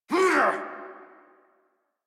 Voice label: Adult Operator Melee Swing exert; New War

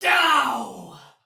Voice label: Attack, Soldier Female, Battle; Sinecure

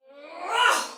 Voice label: Attack Heavy Soldier Female, Battle Barks; Sinecure

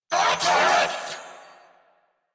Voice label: Attack Grineer Male; New War; Narmer

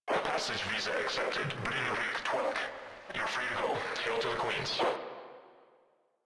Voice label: Controller, Radio Interceptions

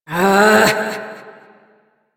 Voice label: Frustration

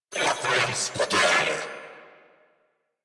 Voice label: Player Spotted Grineer Male; New War; Narmer

